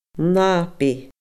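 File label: "nabaidh", Scottish Gaelic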